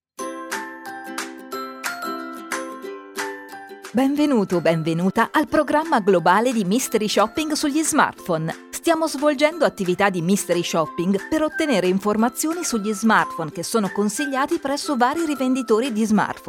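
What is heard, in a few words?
E-Learning, MUSIC, Italian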